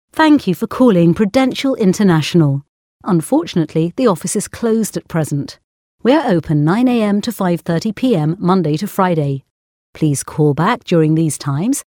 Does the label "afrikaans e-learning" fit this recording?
No